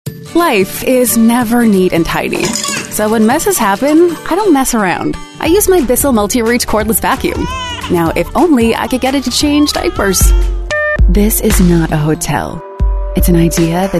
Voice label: english american voice over female commercial

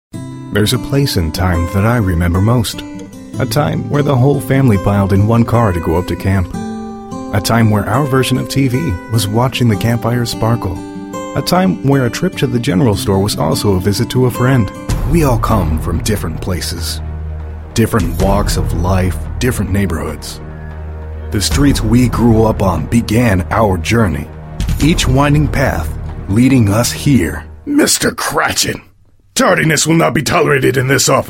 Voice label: english american voice over male commercial

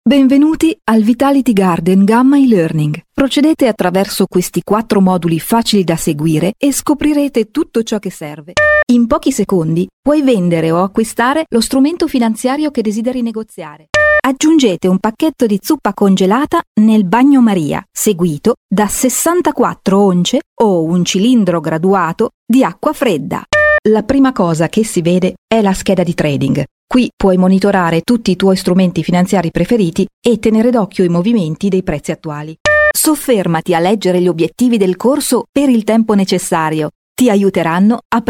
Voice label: italian voice over female elearning

